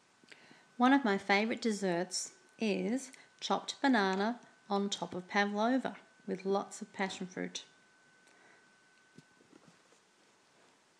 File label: bananas with no accent